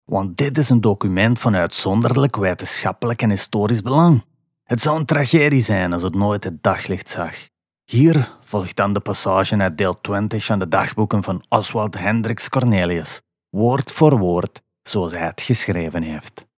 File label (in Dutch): NL Vlaams